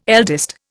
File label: "eldest", Indian